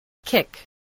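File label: "kick", English word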